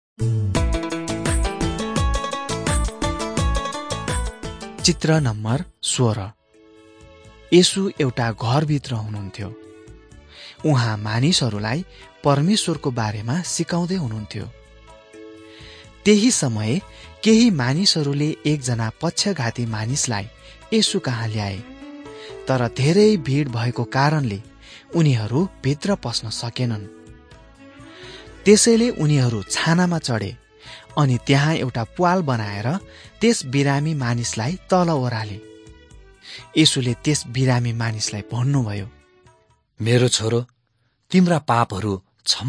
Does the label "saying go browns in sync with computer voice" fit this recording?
No